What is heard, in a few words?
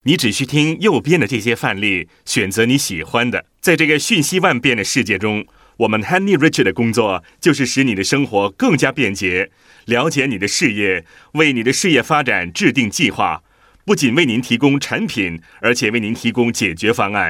Internal Mandarin, China male